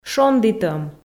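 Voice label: "шондітӧм", Komi, female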